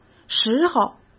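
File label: "时候", Mandarin Chinese; chinese flashcards; hsk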